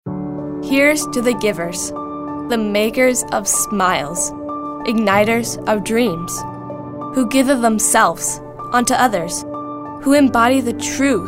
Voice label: caring

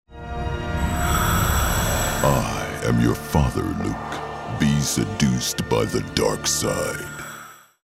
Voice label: impressions

